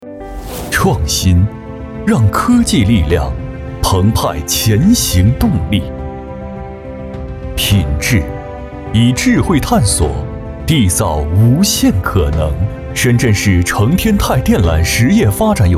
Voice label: 男 专题 企业; 成天泰电缆介绍片

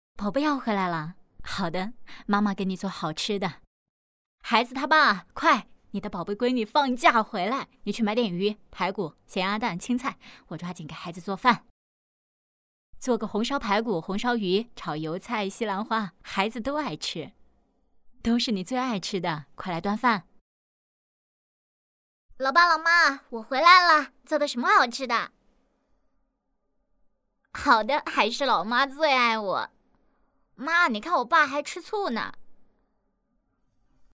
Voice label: 女 角色 妈妈女儿

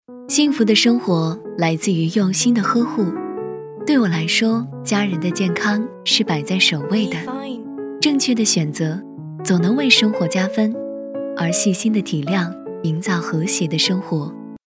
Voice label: 女 品质广告

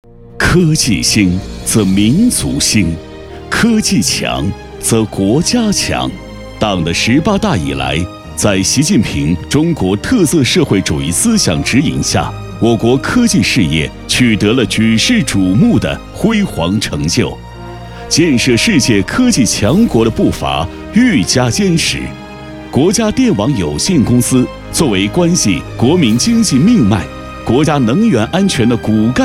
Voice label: 男 大气宣传 电力; 江苏省电力

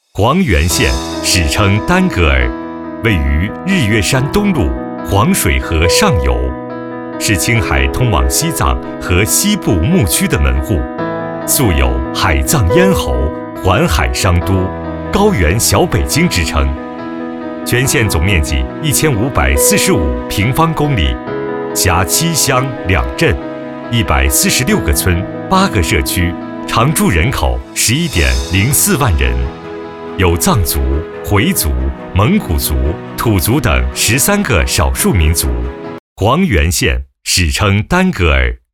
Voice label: 男, 汇报片; 湟源县

